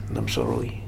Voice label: "Namsaroi", futuna aniwa

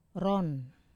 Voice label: "ron" ironwood, Wamesa

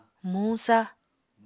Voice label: "muñ-sa", Apatani

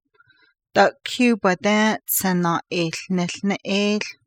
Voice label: navajo

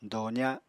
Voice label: "doza", Apatani

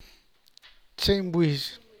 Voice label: in fifteen days, Santiago Matatlán Zapotec; tsënbuixhí; (Spanish) en quince días

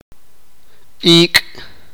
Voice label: "luna", sipakapense

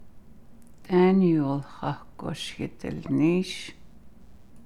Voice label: When does Daniel go to work, Navajo

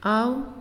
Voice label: "Give", Naasioi

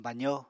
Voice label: "bazo", Apatani

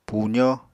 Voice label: "puzó", Apatani

